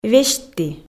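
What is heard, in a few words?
"весьтті", Komi, female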